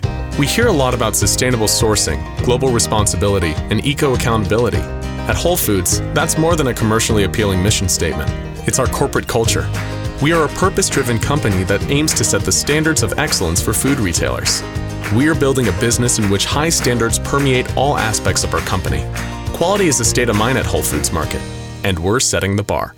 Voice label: corporate voice over; Wholefoods